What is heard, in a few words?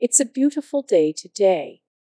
female sample